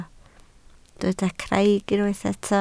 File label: "craig", Welsh